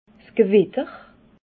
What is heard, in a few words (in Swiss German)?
"gewitter", alsace